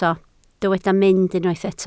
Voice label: "mynd", Welsh

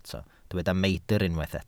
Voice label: "meidr", Welsh

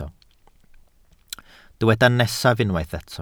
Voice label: "nesaf", Welsh

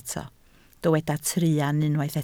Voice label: "truan", Welsh

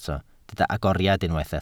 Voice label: "agoriad", Welsh

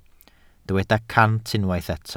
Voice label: "cant", Welsh

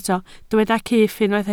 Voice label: "cyff", Welsh

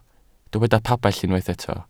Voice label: "pabell", Welsh

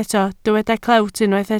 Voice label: "clewt", Welsh

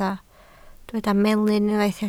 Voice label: "melyn", Welsh

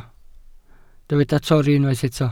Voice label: "torri", Welsh

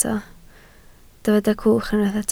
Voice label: "cwch", Welsh

